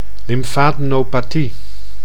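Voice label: "lymfdenopathie", Dutch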